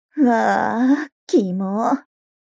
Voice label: mob 女子 台詞

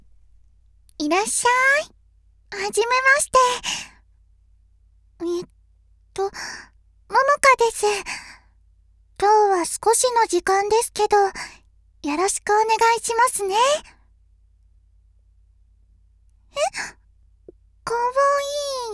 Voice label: ご挨拶, ASMR

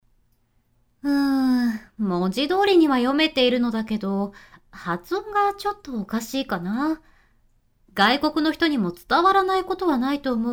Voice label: 女性家庭教師ボイスのみ; 2章

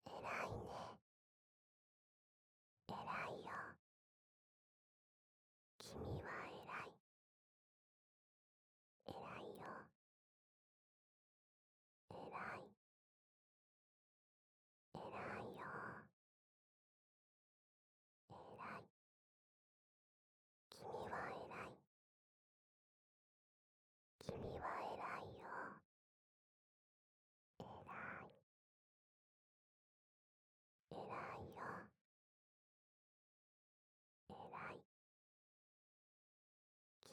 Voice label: 囁き 右耳 君はえらいよ

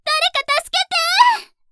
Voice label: 誰か助けてえぇぇ！, アナウンサー; ハイグレボイス集

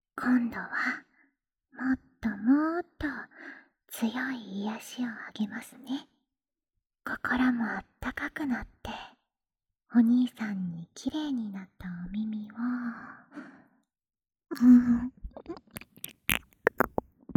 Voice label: エッチで癒しな耳舐め, ASMR

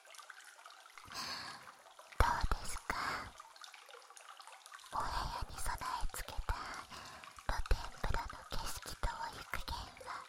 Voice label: 浴槽で潜望鏡フェラ（バイノーラルマイク 効果音あり）, ASMR

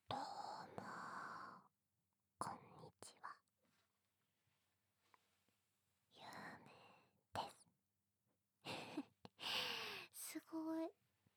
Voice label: フリートーク

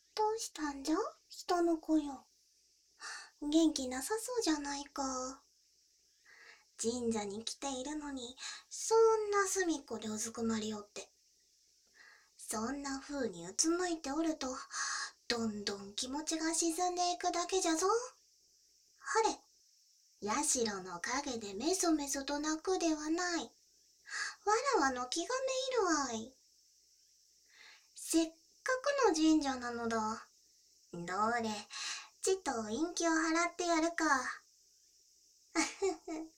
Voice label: 環境音有り, ASMR; 狐娘との出会い

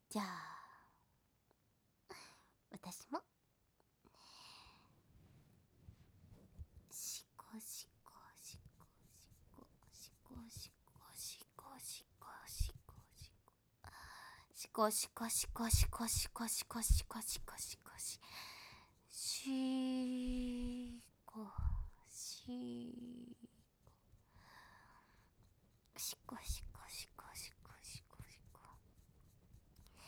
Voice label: シコボイス カウント ステレオ

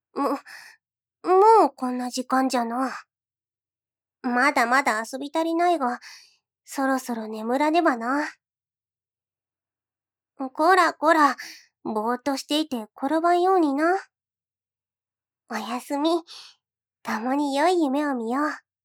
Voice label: 子どもに言い聞かせるように, おやすみボイス

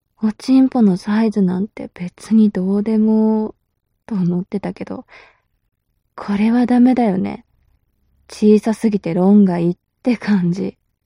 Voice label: mob女子台詞

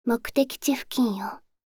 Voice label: 目的地付近よ, カーナビ 案内音声; カーナビ(で使えるかもしれない)案内音声

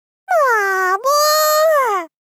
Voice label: ばぁ～ぶぅ～♡ 少女×高音域

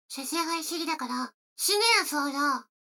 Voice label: 女の子台詞

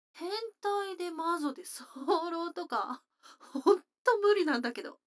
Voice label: 女の子 台詞

